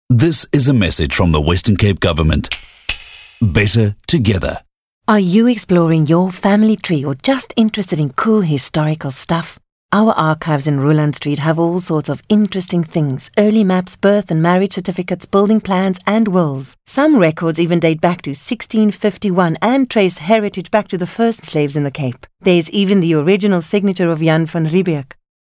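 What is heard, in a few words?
english south african female commercial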